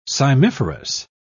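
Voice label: "cymiferous", British English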